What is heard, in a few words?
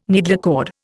"needlecord", Indian